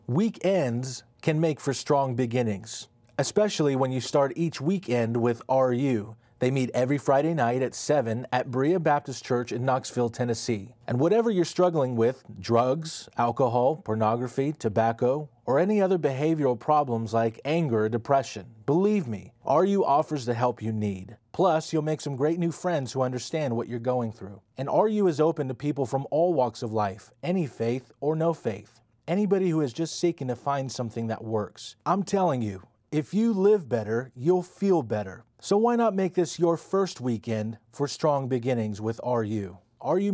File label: Radio Announcement; Cisco